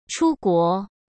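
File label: "出国" Mandarin Chinese; hsk; chinese-flashcards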